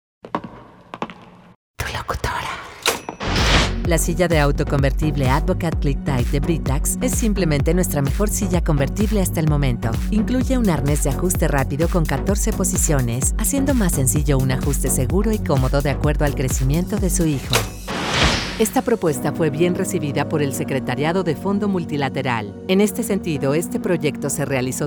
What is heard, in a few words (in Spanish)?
DEMO CORPORATIVO